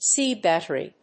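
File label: "C battery", English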